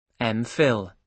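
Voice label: "MPhil", British English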